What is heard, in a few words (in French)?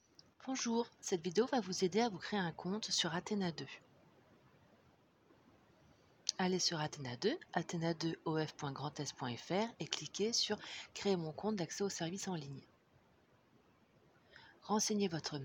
Enregistrement VOIX